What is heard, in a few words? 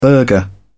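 "burgher", British English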